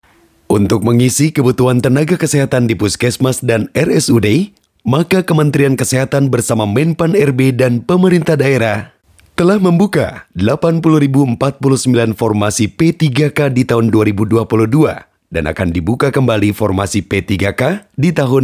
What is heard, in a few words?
INDONESIA FORMAL